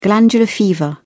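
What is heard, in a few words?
"glandular fever", English, British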